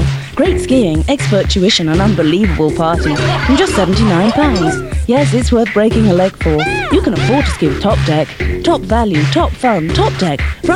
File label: commercial Travel; Top Deck